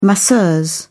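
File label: "masseuse", British English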